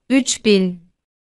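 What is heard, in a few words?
3 thousand liras, Turkish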